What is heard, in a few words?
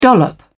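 "dollop", British English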